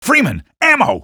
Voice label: ammo, male; Half-Life 2